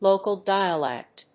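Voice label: "local dialect", English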